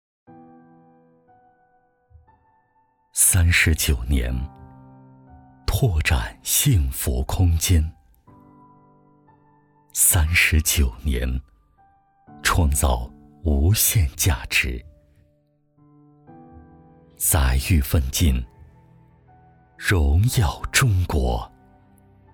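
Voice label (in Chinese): 品质广告形象片; 中海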